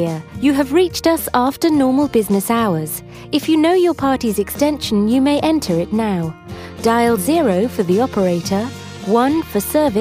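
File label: Telephone On Hold, New Zealand English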